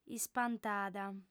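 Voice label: "ispantada", Sardinian, female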